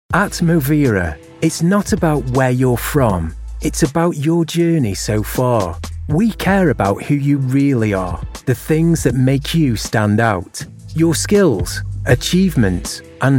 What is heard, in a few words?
corporate narration